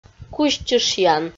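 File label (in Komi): "кузьчышъян", Komi-Zyrian, female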